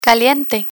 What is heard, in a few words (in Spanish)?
"caliente", Spanish; spanish future tense; learn spanish